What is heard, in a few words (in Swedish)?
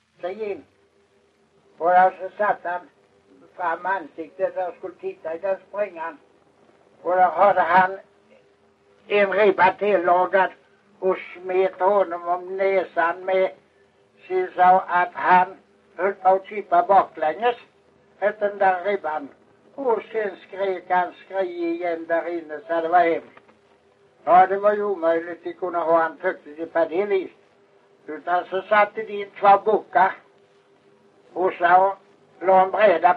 Kristianopel, dialekter; Folkminnen